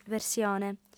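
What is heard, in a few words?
"versione", Sardinian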